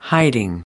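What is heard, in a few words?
"hiding", American English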